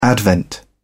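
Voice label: "advent", British English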